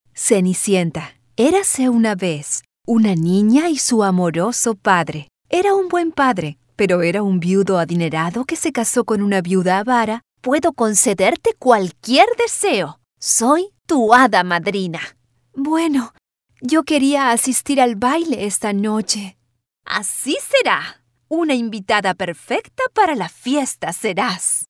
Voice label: audiobooks stories